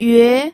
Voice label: "yue2", pinyin

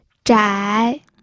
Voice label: "zhai3", pinyin

